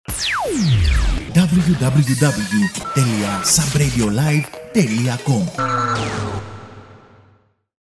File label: radio spot